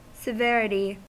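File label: "severity", US English; pronunciations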